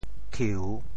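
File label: "thiu3", Teochew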